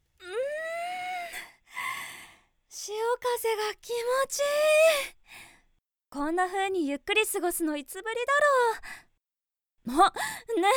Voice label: female